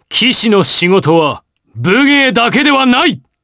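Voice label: vox trs Japanese; Roman; kings raid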